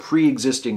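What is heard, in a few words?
"preexisting", US English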